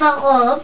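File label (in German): "WARUM", German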